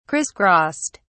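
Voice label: "crisscrossed", British English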